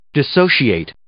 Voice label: "dissociate", English

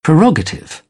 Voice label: "prerogative", British English

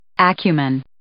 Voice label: "acumen", English

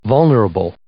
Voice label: "vulnerable", English

